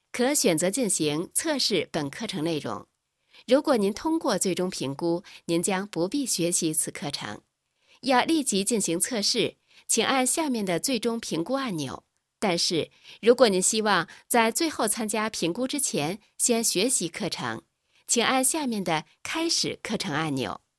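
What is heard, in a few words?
Chinese elearning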